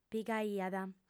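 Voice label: "pigaiat", female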